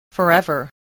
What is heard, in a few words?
"forever", English voice, spelling